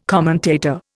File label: "commentator", Indian